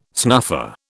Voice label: "snuffer", British